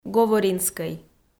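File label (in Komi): "говоринскӧй", Komi-Zyrian, female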